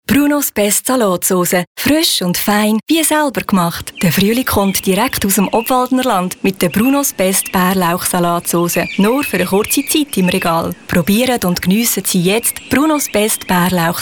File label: german switzerland narration